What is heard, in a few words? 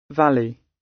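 "valley", British English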